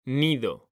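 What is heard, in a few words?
"nido", Spanish, male; mekanta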